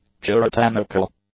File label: "puritanical", english; adjectives